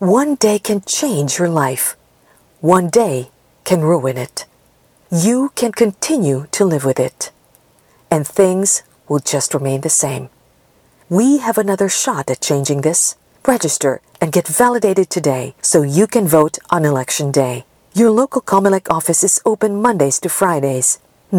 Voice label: radio ad English voiceover; 30 sec